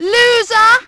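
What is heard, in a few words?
"loser", British; Splashdown; XBOX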